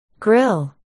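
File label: "grill", spoken word, articulation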